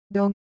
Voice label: Japanese Sound No Pros, Ideo Phones

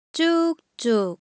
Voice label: Korean, Ideo Phones; Motion

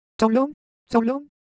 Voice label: Siwu Sound

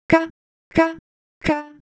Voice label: Ewe Motion, ideophones

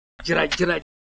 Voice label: Siwu Texture

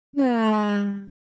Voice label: Ewe, ideophones; Color Visual

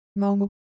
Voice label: Ewe, ideophones; Color Visual

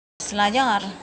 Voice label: Semai Shape

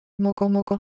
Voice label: Japanese Shape, ideophones

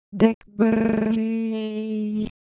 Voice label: Siwu Motion

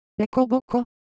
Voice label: Japanese Texture, Ideo Phones

